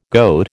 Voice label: "goat", American